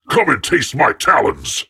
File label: Voices Phrase Hi